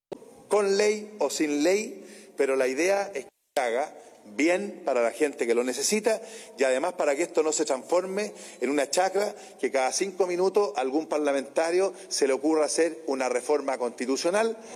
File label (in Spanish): CUÑA